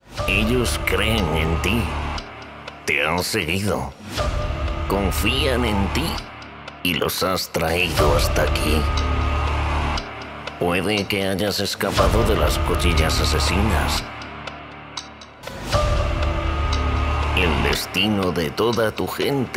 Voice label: acting Cartoon Nasal Old Alien

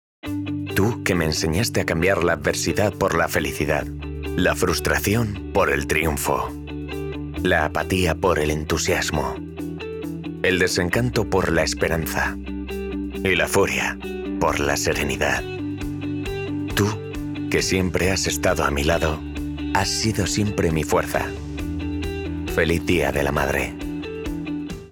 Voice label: EU Male Spanish Voice Campaign; Dia de la madre

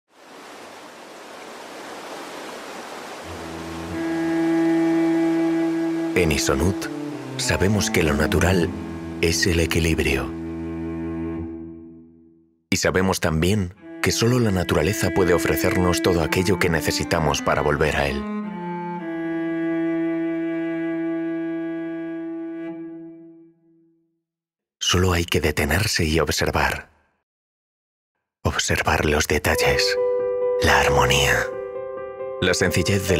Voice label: EU Male Spanish VO Corporate video; Ysonut Vídeo institucional 2020

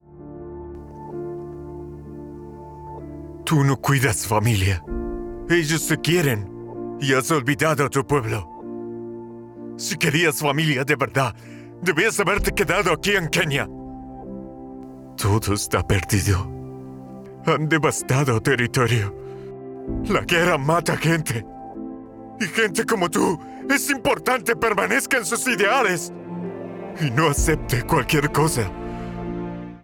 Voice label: African accent drama

